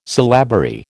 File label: "syllabary", American